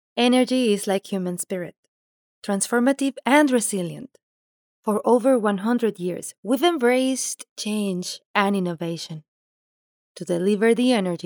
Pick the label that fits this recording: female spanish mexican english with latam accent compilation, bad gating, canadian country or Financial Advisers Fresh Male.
female spanish mexican english with latam accent compilation